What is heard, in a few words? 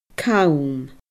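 "cam", Scottish Gaelic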